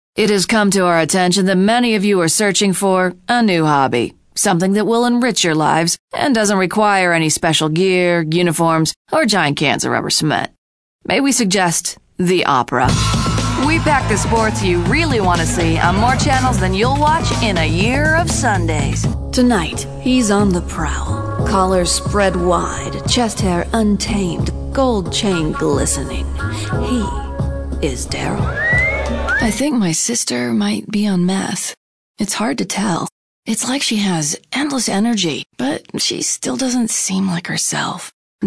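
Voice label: commercial; Talent Management Group, Inc.; Murray, UT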